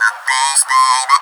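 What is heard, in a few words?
effects talk, Funky Vocals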